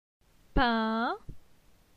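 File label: "Pin", French; phonetique; la syllabe in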